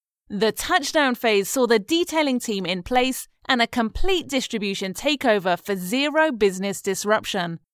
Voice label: E-Learning Explainer Video